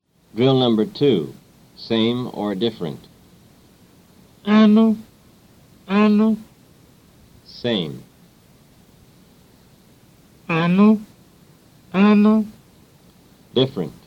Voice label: Igbo Basic Course Tone Drill; fsi